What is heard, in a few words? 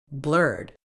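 "blurred", British English; blɜːd; blurd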